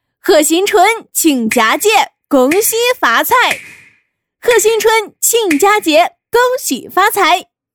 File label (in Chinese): 河南拜年